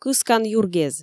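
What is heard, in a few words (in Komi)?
"кыскан юргез", Komi, female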